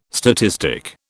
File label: "statistic", British